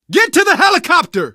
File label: arrive chopper, survivor voice, manager